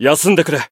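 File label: Voice heal, Japanese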